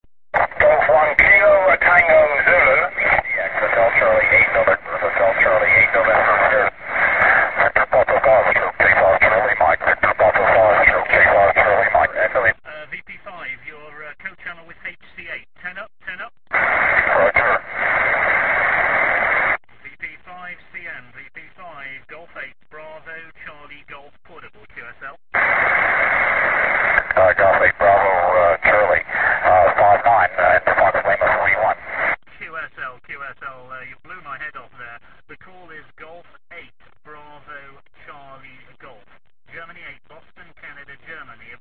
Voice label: ssb qso